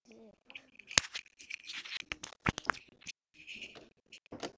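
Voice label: "zip", spoken word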